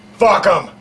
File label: fuck them tourettes, expletives